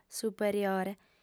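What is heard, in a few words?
"superiore", female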